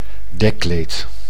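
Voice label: "dekkleed", Dutch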